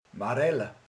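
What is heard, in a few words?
"kajszibarack", Hungarian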